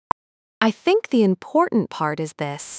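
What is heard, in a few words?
speaker female slow low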